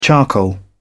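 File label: "charcoal", British English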